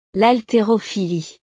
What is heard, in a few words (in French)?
"l halterophilie", frances; deportes